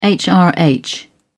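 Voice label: "hrh", British English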